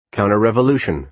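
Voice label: "counter-revolution", American English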